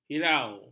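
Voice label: "hirau", Malay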